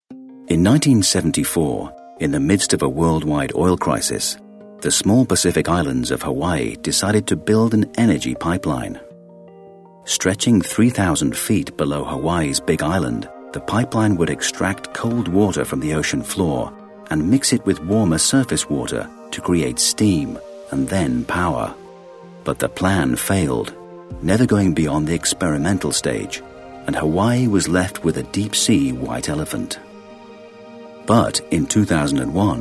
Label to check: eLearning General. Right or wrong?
Wrong